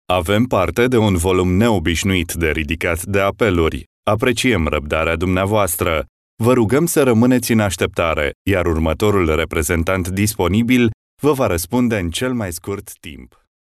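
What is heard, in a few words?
Romanian, male, IVR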